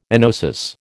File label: "enosis", American